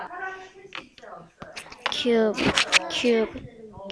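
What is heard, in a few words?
"cube", spoken word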